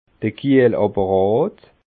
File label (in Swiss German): "kiehlapparat", alsace